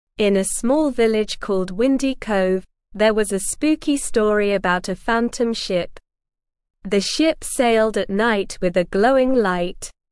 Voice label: ESL Short Stories for Kids Beginner SLOW Reading; The Legend of the Phantom Ship

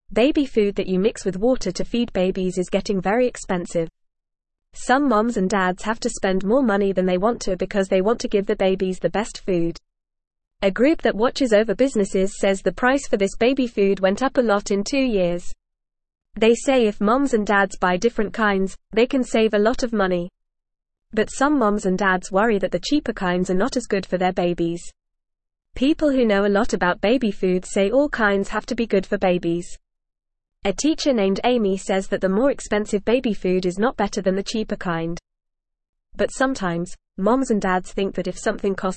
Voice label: English Beginner FAST Reading; Expensive Baby Food Cheaper Options Are Good; English Newsroom

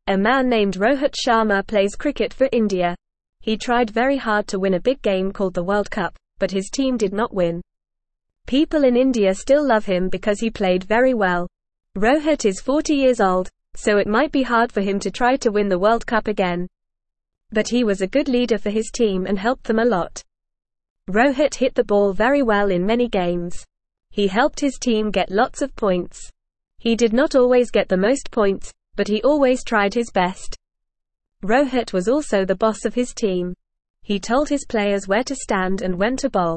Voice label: English Newsroom Beginner FAST Reading; Rohit Sharma A Cricket Hero Who Never Gives Up

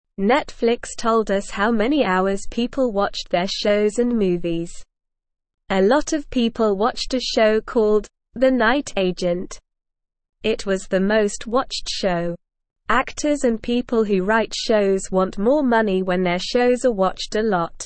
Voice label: Beginner SLOW Reading, English; English Newsroom

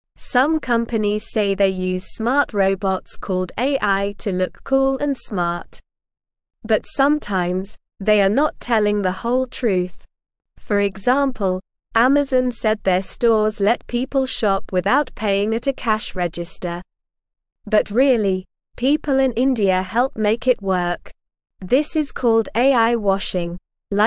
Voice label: English Newsroom Beginner SLOW Reading; Companies Pretend to Use Smart Robots Called AI

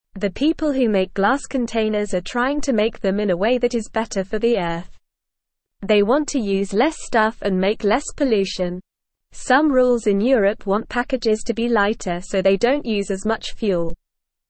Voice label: English Lower Intermediate NORMAL Reading; Making Glass with Electric Power Helping the Eart

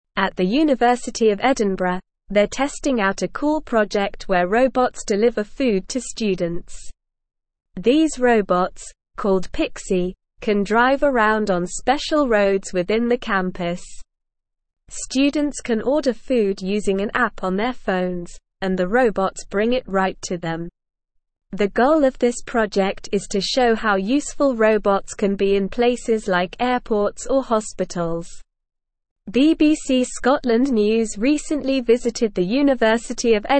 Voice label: English Upper Intermediate SLOW Reading; English Newsroom

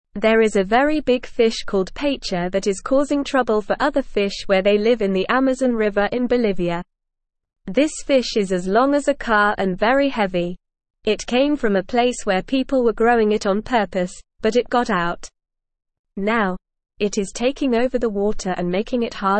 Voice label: Beginner NORMAL Reading, English

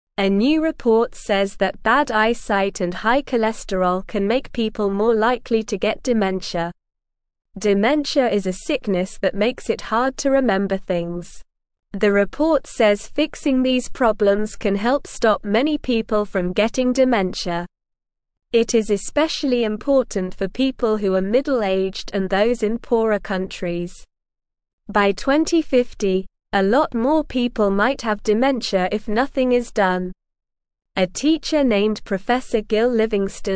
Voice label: English Newsroom Beginner SLOW Reading; Stay Healthy to Help Our Brains and Memories

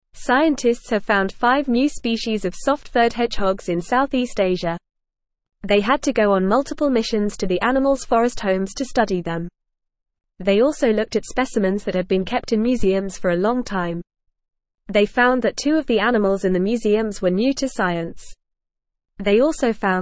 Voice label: English FAST Reading; Discovery of Five New Soft Furred Hedgehog Species; English Newsroom

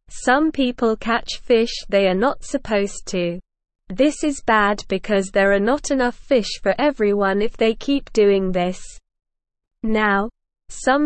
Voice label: English SLOW Reading; Using Robots and Computers to Save Fish

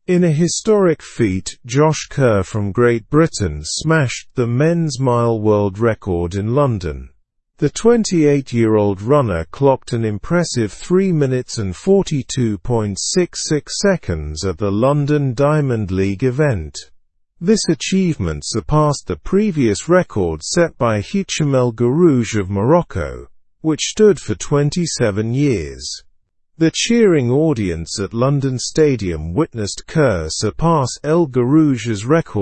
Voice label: english through news upper intermediate slow; josh kerr shatters 27 year mile record

